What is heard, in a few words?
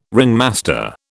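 "ringmaster", British English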